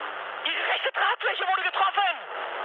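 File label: German right wing mood high, speech; War Thunder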